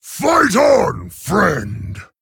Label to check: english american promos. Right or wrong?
Wrong